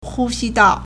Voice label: "hu1 xi1 dao4", Chinese